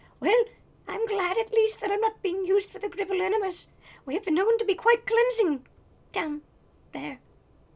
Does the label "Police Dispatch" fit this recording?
No